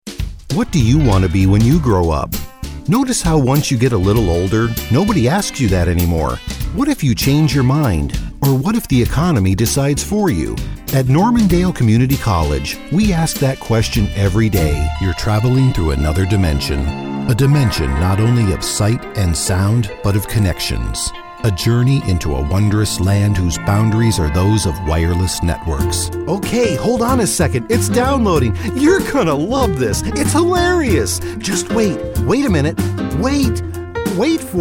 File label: Voice Talent Commercial; Demo